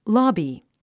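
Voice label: "lobby", English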